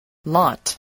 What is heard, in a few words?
"lot", spelling